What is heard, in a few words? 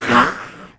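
Lizard voice unbalance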